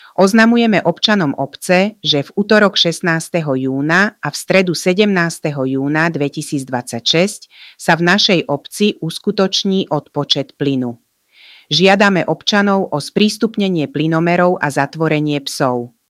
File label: ai speech louder; zahradne; rozhlas obce